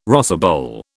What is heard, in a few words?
"rosebowl", British